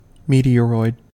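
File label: "meteoroid", US English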